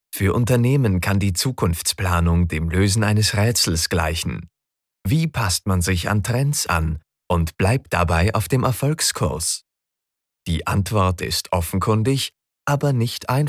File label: german podcasts